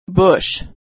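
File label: "bush", American English; vowel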